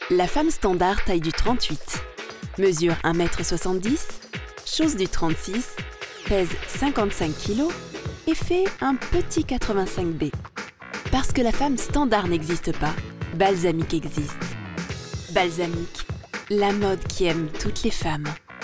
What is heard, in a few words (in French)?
naturel souriant